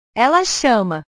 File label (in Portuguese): "ela chama", portugues; chamar; portugues/verbos